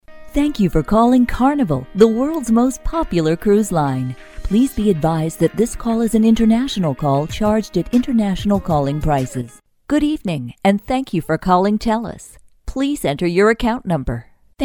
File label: female